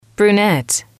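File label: "brunette", English, female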